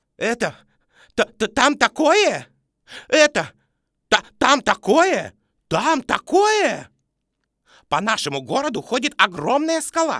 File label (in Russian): personazh muzhchina ne uverennyj v sebe